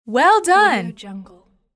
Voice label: "well done", female voice